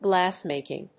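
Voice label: "glassmaking", English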